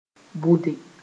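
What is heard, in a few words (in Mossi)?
"bʋde", Mooré; to sow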